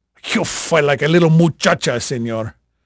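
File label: Spanish male, voice; Pirates Of The Caribbean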